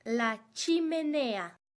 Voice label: la chimenea, Espagnol